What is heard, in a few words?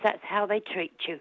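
"treat you", London English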